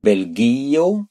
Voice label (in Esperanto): "belgio", Esperanto